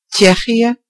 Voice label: czechia, nl; gcompris-data; geography